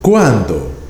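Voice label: "CUÁNDO", Spanish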